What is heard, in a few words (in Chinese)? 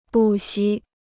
"不惜", Chinese